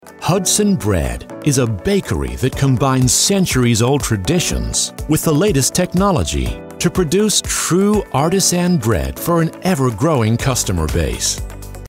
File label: brand video, voice; HUDSON BREAD